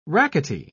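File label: "rackety", British English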